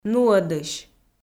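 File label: "нуӧдысь", Komi, female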